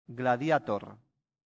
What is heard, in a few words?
"gladiator", latin; santillana